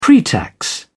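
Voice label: "pretax", British English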